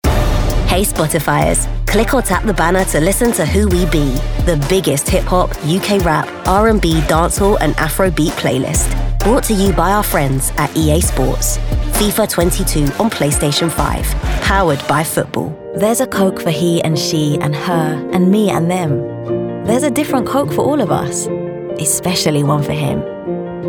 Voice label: voiceover commercial urban